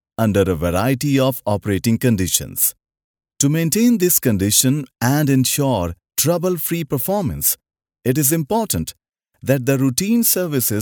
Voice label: english indian voiceover locutor ingles india